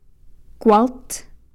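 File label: "wind", Takelma word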